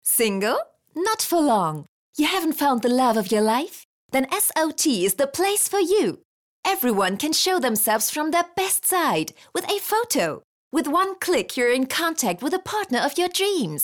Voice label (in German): uk englisch